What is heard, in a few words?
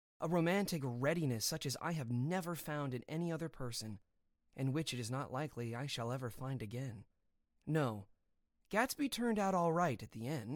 vo classic lit